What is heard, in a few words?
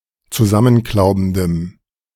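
"zusammenklaubendem", German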